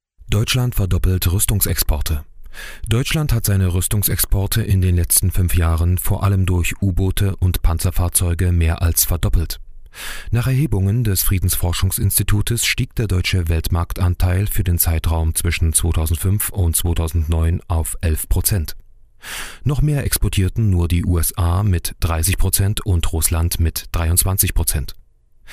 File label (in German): nachrichten